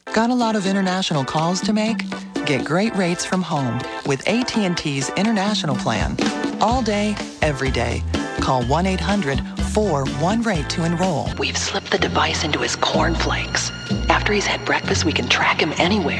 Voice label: Commercial, Female voices